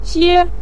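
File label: "xie", Mandarin Chinese syllable, neutral tone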